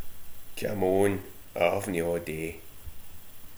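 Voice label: "oan", slang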